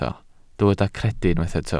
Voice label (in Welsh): "credu" Welsh